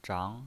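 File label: "zhang2", Mandarin pinyin voice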